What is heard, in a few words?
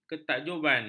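"ketakjuban", Malay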